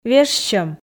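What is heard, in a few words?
"вежсьӧм", Komi, female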